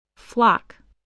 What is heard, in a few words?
"flock", spelling